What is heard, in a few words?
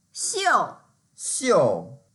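"xiu4", Mandarin Chinese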